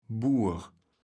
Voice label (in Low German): "Bur", kaevels platt